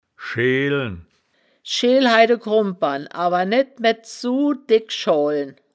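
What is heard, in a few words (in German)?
"scheelen", wittlicher woerterbuch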